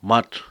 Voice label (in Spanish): "tierra", Cucapá